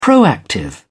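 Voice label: "proactive", British English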